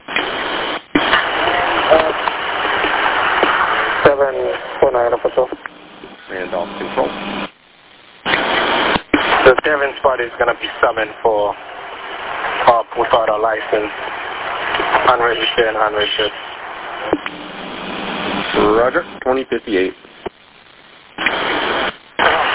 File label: Police Radio